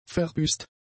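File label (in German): "verbüßt", German; adjectives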